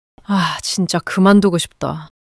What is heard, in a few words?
anger